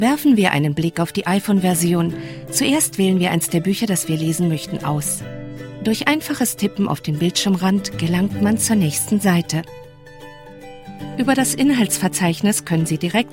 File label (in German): imagefilm kurz